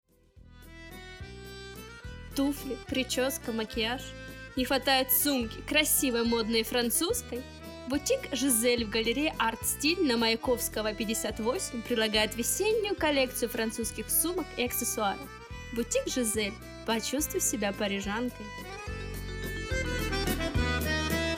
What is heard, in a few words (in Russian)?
ДИКТОР, БАНК